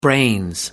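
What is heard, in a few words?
"brains", British English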